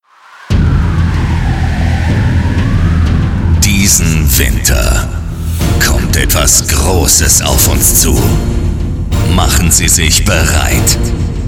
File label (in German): Branchenspot Raederwechsel